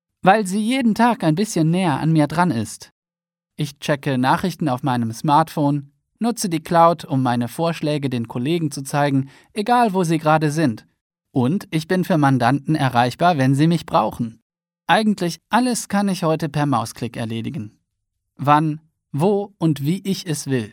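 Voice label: German male voice over, narrative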